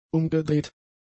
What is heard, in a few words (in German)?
"umgedreht", German; adjectives